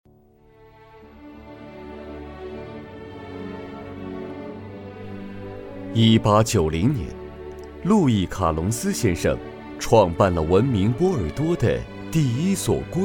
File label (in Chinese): 解说深沉抒情